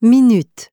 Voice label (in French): queue minute, fr